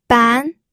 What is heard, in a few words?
"ban2", pinyin